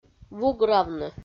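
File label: "вугравны", Komi, female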